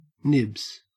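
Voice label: "nibs", Australian English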